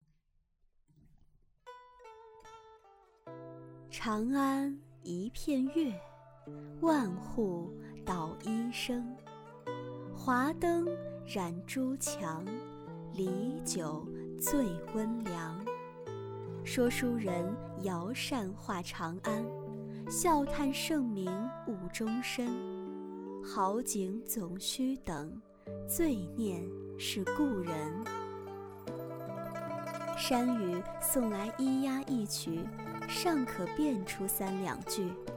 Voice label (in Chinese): 剧本杀样音